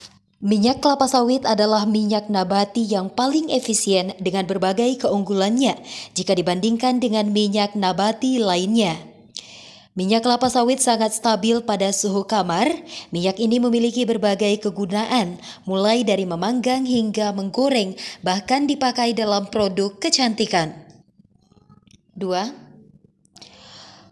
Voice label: Formal Indonesia